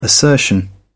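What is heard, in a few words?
"assertion", British English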